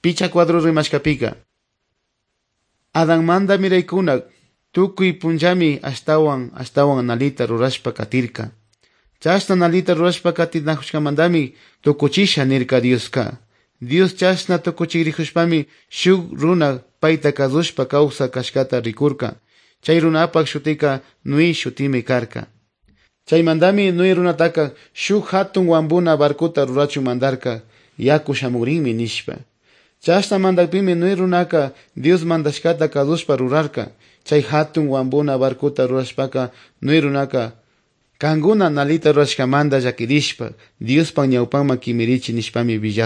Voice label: good news quichua otovalo

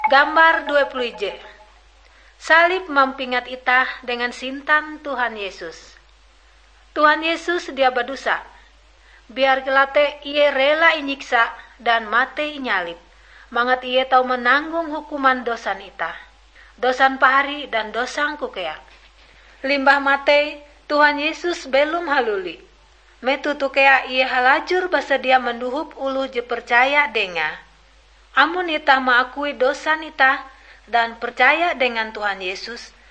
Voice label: kabar bahalap good news ngaju, drama

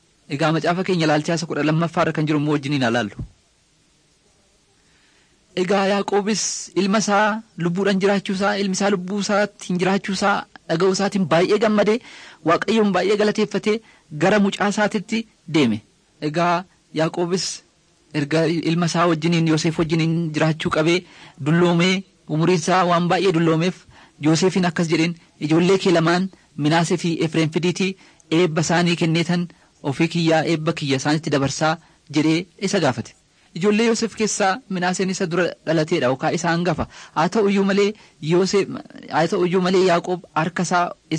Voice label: oromo wellega; mighty men of god

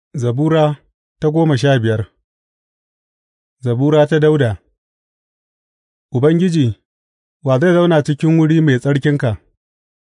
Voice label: hausa bible drama, psalms; hausa bsn nt drama

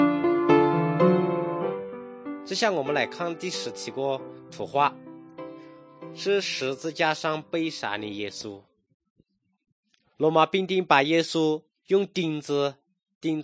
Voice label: good news shan, drama

